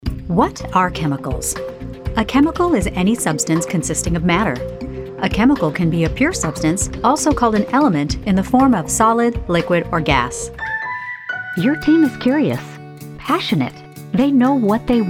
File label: eLearning